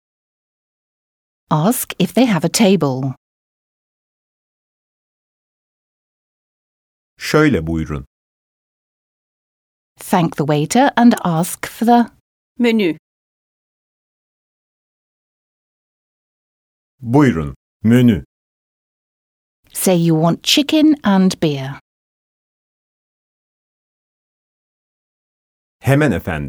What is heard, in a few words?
Speaking, Turkish